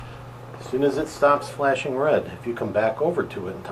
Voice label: 2nd Floor Museum Child Voice; paranormal; syracuseparanormalteam